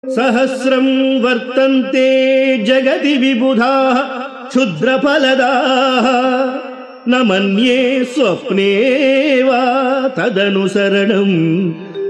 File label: Sanskrit